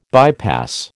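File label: "bypass", American English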